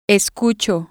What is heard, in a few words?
"escucho", Spanish